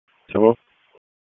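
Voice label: Police District Dispatch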